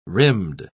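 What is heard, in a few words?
"rimmed", British English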